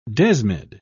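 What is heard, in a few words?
"desmid", British English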